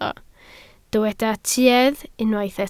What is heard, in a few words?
"tuedd", Welsh